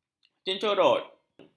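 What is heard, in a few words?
"cencorot", Malay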